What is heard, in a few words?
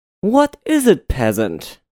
Peasant - bright